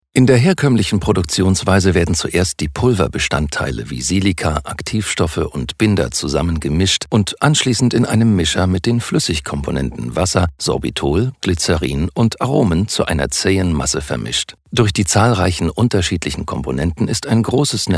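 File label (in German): Kommentar Neutral; Fremdtake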